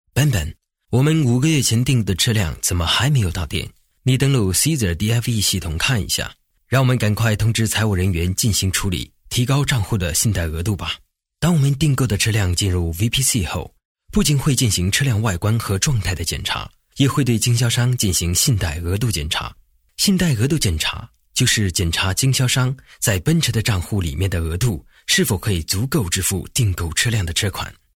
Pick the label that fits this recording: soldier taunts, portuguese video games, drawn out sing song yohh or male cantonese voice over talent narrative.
male cantonese voice over talent narrative